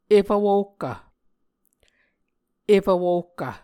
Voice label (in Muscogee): "efv wohkv", Muscogee